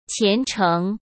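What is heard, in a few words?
"虔诚", Mandarin Chinese; chinese-flashcards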